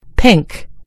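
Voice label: "pink", British English; gcompris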